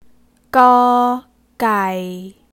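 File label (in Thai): "ก", Thai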